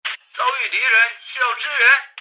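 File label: radio fire assist; battlearray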